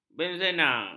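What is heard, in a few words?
"benzena", Malay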